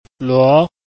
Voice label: "luo2", Chinese

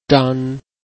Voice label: "zhan1", Chinese

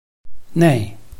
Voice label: "nei4", Chinese

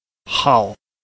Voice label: "hao4", Chinese

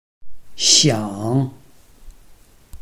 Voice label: "xiang3", Chinese

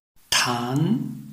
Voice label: "tan2", Chinese

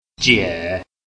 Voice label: "jie3", Chinese

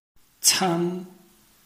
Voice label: "can1", Chinese

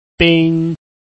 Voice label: "bin1", Chinese

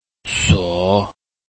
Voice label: "suo3", Chinese

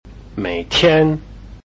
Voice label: "mei3 tian1", Chinese

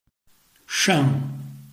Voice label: "sheng4", Chinese

